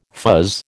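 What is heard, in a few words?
"fuzz", American English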